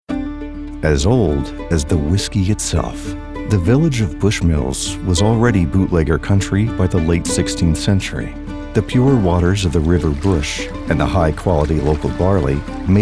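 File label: voiceover explainer storyteller, Male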